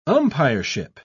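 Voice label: "umpireship", British English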